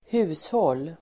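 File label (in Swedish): "hushåll", Swedish; Uttal: [²h'u:shål:]; household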